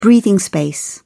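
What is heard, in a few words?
"breathing space", British English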